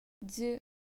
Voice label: "zuu", japonstina